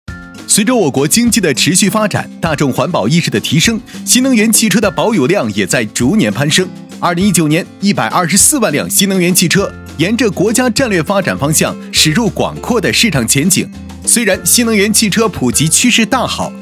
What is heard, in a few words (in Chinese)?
男-科技专题-汽车环保